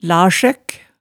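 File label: "larsøk", Hemsedal dialect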